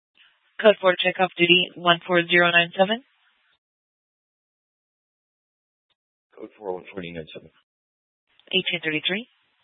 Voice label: Dispatch, scanner; TOPAZ Rgnl Wireless Co-op (TRWC); Mesa PD Dpt; 4 Sprstn Dispatch